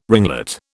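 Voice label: "ringlet", British English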